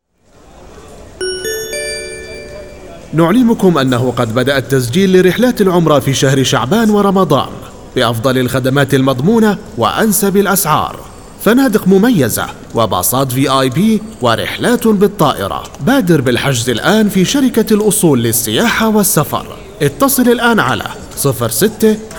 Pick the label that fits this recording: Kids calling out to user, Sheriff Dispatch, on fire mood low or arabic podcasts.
arabic podcasts